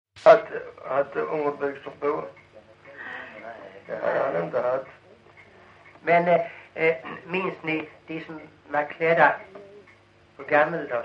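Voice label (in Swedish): Lilla Harrie Skåne, Svenska sagor dialekter; Folkminnen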